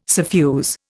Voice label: "suffuse", Indian